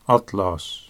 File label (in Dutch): "atlas", Dutch